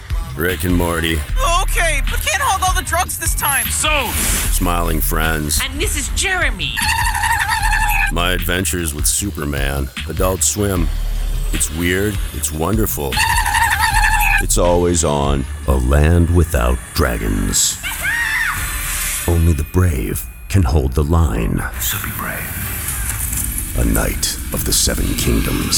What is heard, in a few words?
Promo